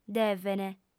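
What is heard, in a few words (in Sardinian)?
"devent", female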